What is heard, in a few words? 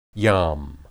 "yam", Hebrew